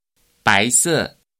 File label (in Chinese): "白色" Chinese